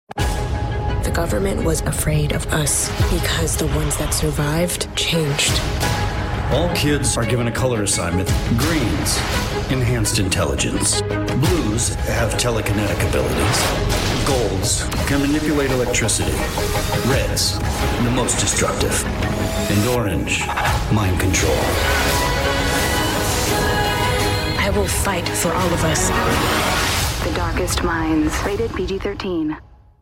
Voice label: TV spots; The Darkest Minds